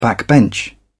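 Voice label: "backbench", British English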